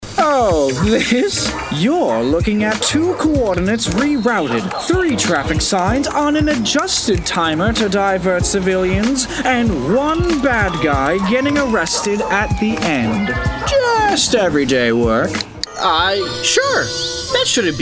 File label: Animation